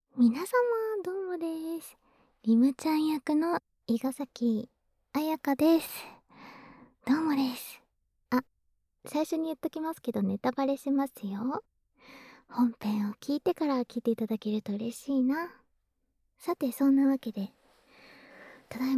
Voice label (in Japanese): キャスト フリートーク